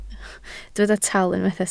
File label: "tal", Welsh